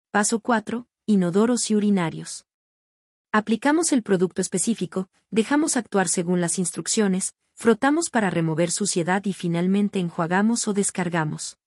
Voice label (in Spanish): locucion